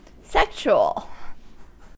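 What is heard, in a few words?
"sexual", US English; pronunciations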